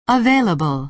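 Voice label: "available", American English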